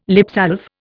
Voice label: "lipsalve", Indian